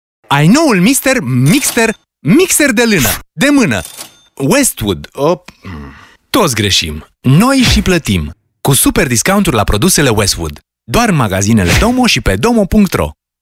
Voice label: Romanian, M, Commercial

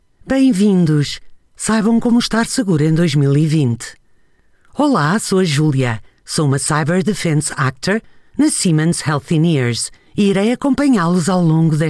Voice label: Portuguese, F, eLearning

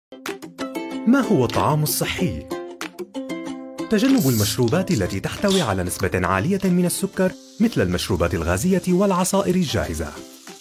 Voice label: Arabic M Explainer

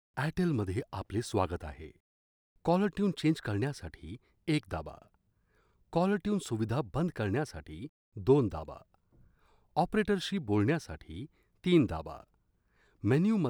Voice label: Marathi, M, Explainer

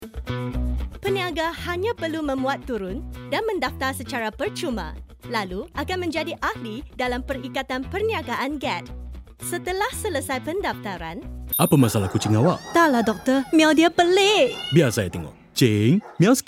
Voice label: Bahasa Malay F Narration; malaysian

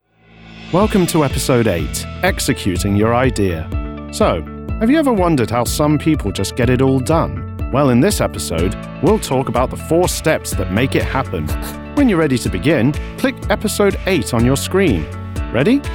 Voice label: English, M, Corporate, corporate voice over

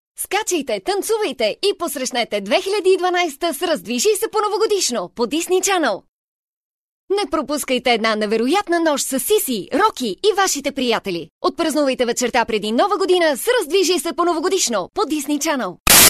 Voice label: Bulgarian F TV Promo

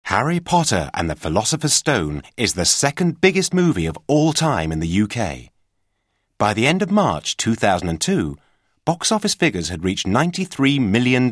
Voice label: Eng ebook

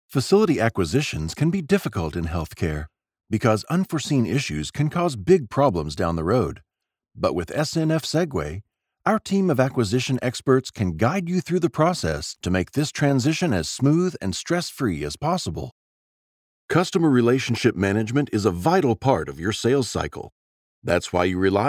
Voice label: English US M Explainer

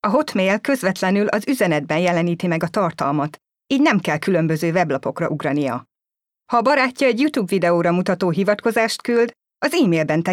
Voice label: Hungarian F Corporate

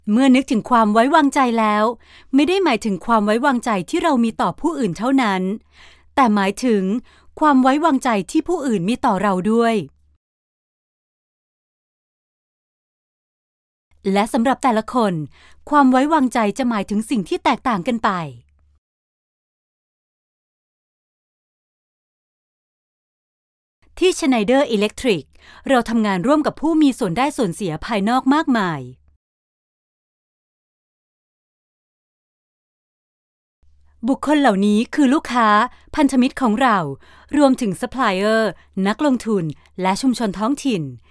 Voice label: Thai, F, Narration

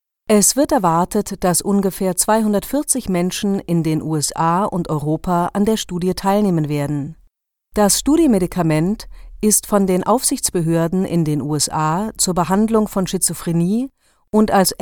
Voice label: German F Medical eLearning

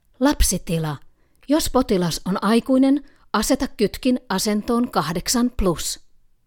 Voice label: Finnish, F, Ad

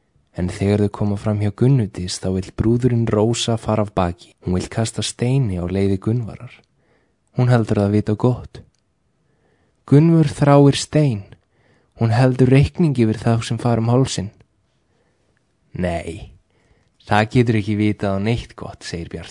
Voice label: Icelandic M Voicereel

